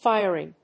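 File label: "firing", English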